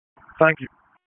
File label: FIRE OPS, p25; FIRE OPS 1; wake